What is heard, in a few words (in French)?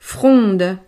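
"fronde", French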